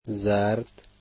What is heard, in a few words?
yellow, Dari